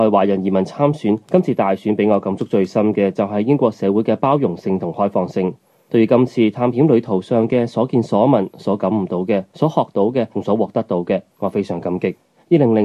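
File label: Chinese Cantonese, male; voice over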